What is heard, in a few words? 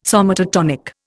"somatotonic", Indian